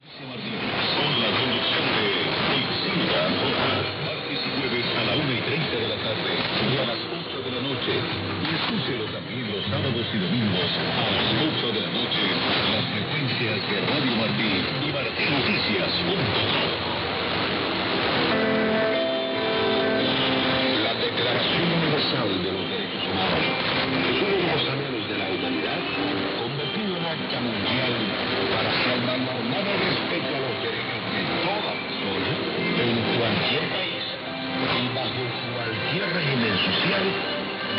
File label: 7335 kHz spagnolo; Radio Marti; Perseus; Schwarzsee